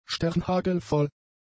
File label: "sternhagelvoll", German; adjectives